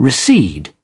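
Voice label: "recede", British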